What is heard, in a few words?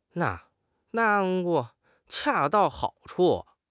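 "恰到好处", Mandarin Chinese; HSK6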